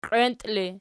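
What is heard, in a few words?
"qienti" ergative, Archi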